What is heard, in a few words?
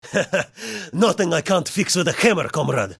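VOX Chatter Tank Russian English; Red Orchestra 2 Heroes Of Stalingrad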